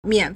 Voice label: "mian3", Chinese

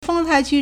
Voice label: "feng1 tai2 qu1", Chinese

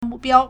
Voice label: "mu4biao1", Chinese

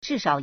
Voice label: "zhi4 shao3", Chinese

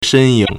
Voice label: "shen1ying3", Chinese

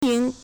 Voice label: "ying2", Chinese

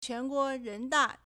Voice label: "quan2 guo2 ren2 da4", Chinese

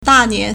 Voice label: "da4 nian2", Chinese

